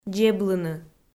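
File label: "дзеблыны", Komi, female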